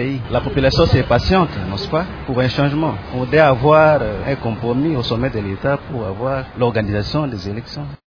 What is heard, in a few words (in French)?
dialogue vox pop